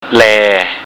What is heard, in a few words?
"laeae", Thai